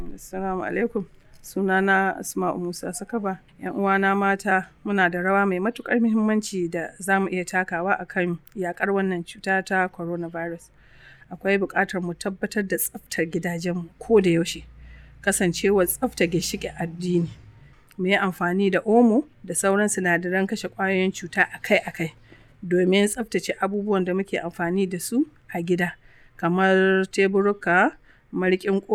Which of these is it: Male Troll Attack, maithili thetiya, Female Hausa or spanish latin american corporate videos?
Female Hausa